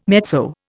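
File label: "mezzo", English, Indian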